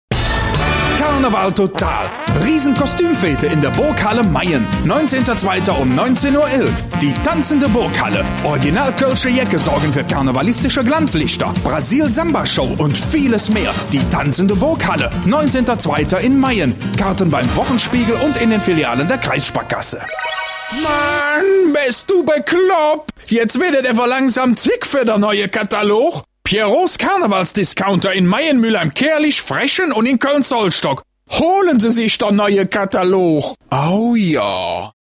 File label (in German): Koelsch, sprecher; Hochdeutsch